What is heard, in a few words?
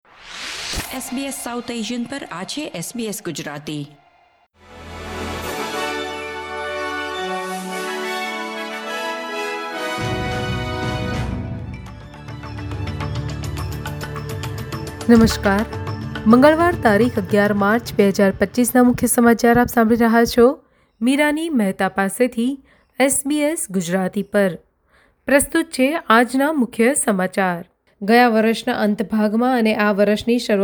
gujarati news bulletin; SBS Gujarati Australian update: 11 March 2025